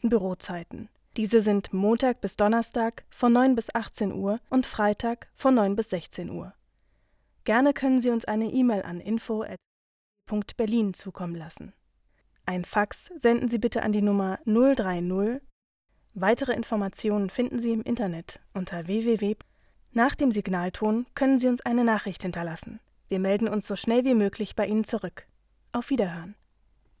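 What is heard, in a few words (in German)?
AB Ansage betriebliche Gründe, Telefonansagen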